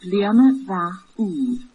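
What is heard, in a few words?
new year Irish; Bliadhna mhath ùr; Scottish Gaelic; Happy New year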